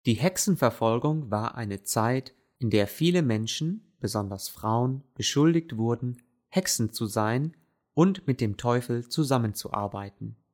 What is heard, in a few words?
german reading witch hunt